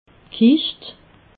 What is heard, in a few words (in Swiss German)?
"kischt", alsace